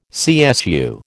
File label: "CSU", American